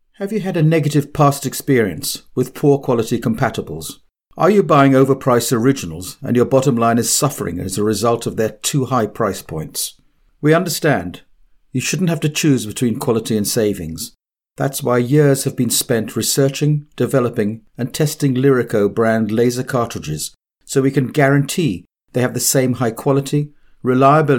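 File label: English, male, explainer; ivr voice prompts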